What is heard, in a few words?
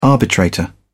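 "arbitrator", British English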